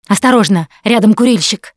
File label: heard smoker, russian; Producer